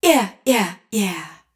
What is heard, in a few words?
Yea Yea Yea wet, adlib; Wet Vocals; Adlibs